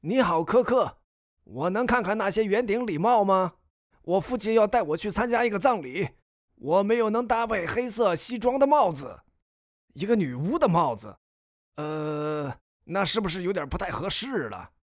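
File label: chinese mandarin male character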